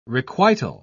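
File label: "requital", British English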